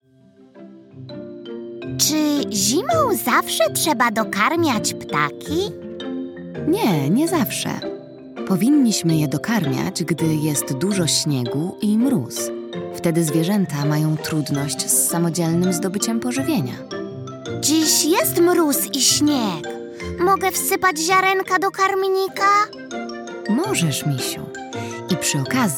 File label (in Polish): narracja; czasopismo mis; zima; rozmowa